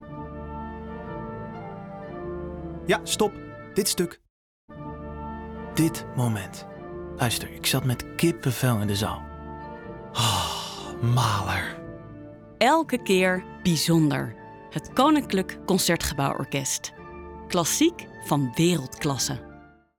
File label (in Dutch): RADIO; KCO